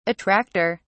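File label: "attracter", British English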